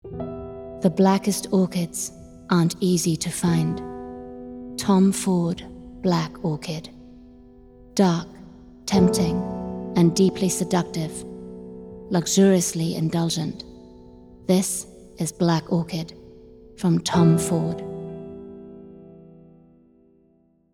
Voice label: female english with undetectable accent; tom ford black orchid